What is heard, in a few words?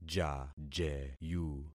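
"jajeyu", concatenated syllables; Affixing experiment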